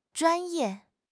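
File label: "zhuan1 ye4", Mandarin Chinese; HSK4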